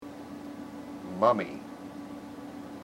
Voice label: "mummy", IPA

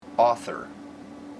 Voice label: "author", IPA

